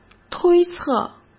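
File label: "推测", Mandarin Chinese; hsk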